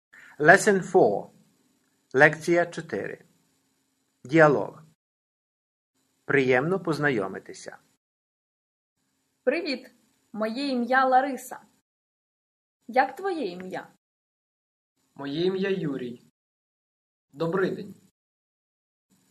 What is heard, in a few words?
Dialogue, Ukrainian